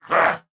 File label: Attack, Corpus Venus Male